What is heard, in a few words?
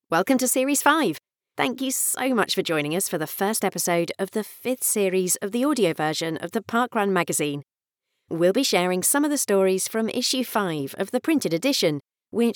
english british podcasts; warm, authentic sound